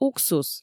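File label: "уксус" Komi, female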